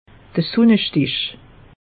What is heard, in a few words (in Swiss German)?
"sunnestich", alsace; meteo